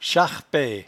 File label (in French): "charpaie", patois jura